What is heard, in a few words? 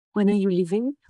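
Voice Acting es, female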